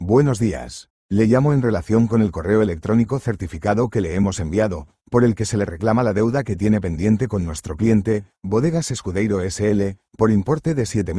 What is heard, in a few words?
audio call